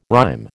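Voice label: "rhyme", American English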